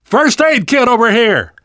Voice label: spot first aid, namvet, survivor voice; Left 4 Dead 2; left4dead2 dlc3

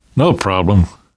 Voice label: you are welcome, namvet, survivor voice; Left 4 Dead 2; left4dead2 dlc3

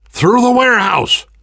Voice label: world hospital, namvet, survivor voice; Left 4 Dead 2; left4dead2 dlc3

